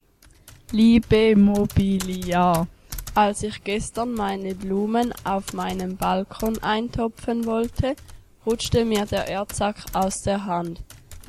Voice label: werbespot; MOBILIAR; Marthalen

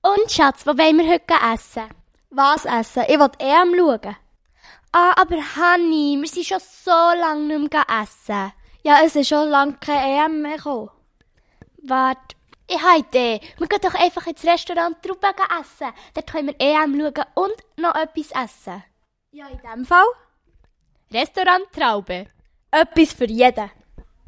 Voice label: Werbespot Restaurant; Restaurant Traube; Muensingen

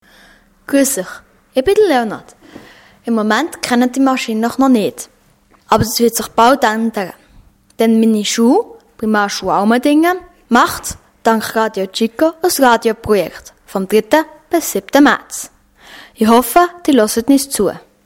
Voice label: Ankündigungsjingle

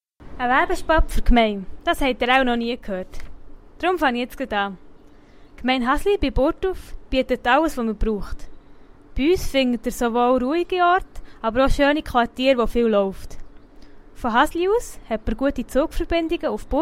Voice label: Jingle; Gemeindeverwaltung Hasle b.B

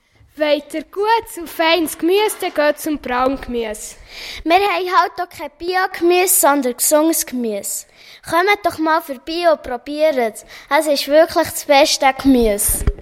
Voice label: Werbung; Brauen Gemüse

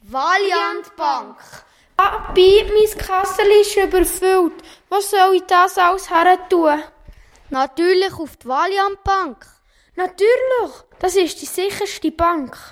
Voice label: Werbung Bank; Valiant Bank

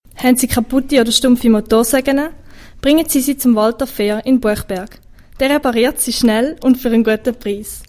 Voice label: Jingles; Buchberg

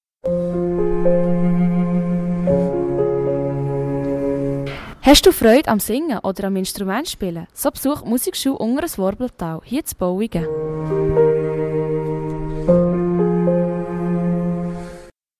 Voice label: Werbejingle Musikschule; unteres Worblental; Bolligen

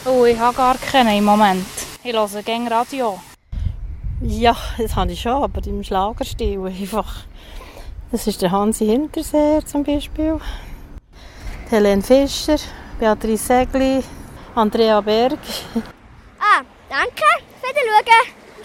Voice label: Umfrage Lieblingssänger

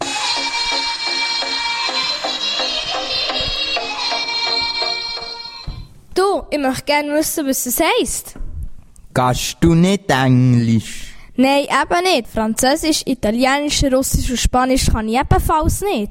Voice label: werbespot talk sprachschule; Baeriswil BE

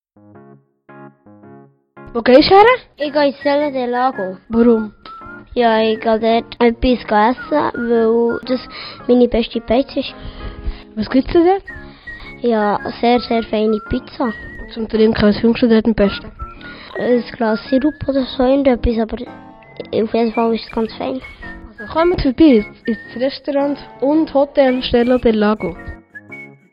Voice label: Werbung; Werbung für die Pizzeria 'Stella del Lago'; Oberhofen

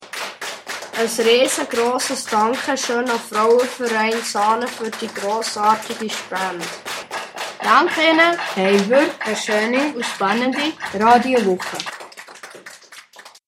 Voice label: Jingle - Frauenverein; Rütti Gstaad

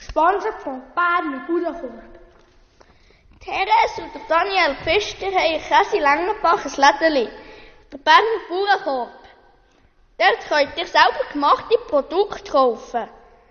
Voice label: werbung; burächorb; emmenmatt 4

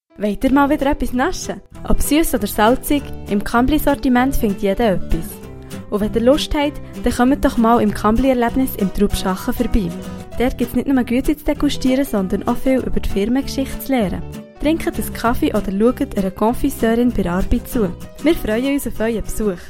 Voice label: Jingle; Kambly

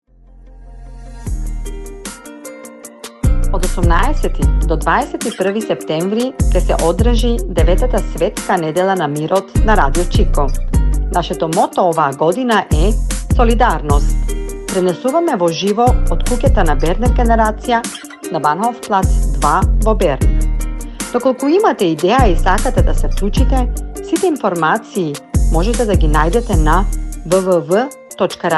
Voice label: Jingle Mazedonisch

